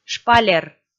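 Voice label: "шпалер", Komi, female; kpv audio dict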